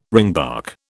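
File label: "ringbark", British English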